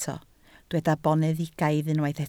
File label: "boneddigaidd", Welsh